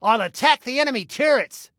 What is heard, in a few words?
Self Attack Turrets, Male; Male 02